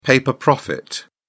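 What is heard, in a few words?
"paper profit", British English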